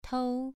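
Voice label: "tou" Mandarin Chinese, second tone, pronunciation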